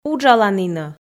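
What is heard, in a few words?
"уджаланінӧ", Komi, female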